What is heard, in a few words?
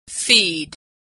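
"feed", American English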